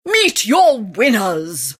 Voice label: admin summary cheer; compmode

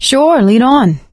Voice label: lead on, survivor voice

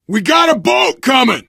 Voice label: radio used boat, biker; voice; source engine; left 4 dead 2; survivor

